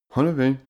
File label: male talk impressed